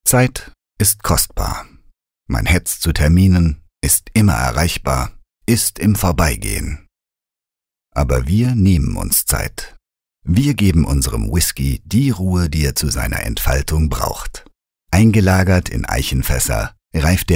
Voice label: Sanft; Old McKenzie

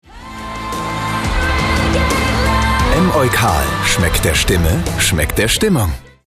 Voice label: Freundlich; EmEukal

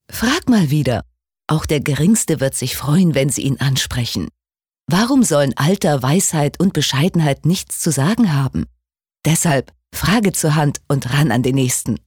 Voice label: Werbung Neutral

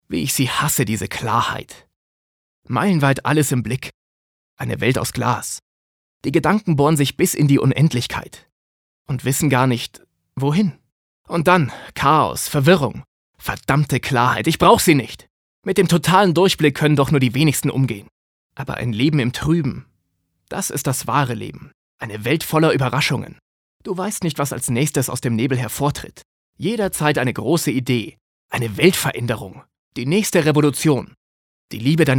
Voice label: Schauspiel Neutral

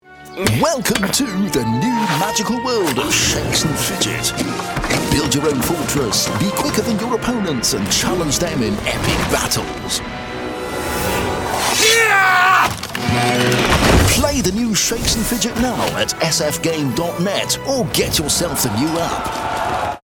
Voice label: Eng UK Dynamisch

